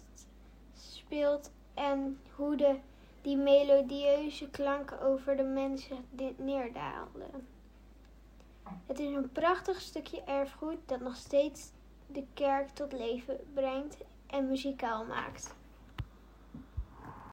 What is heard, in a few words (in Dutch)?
Audiotour voor kinderen Het orgel